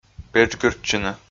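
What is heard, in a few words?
"педжгыртчыны", Komi, male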